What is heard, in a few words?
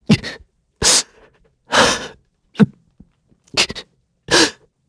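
Vox Sad, Korean; Siegfried; kingsraid; voices heroes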